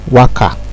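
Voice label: "waka", Nigerian Pidgin, male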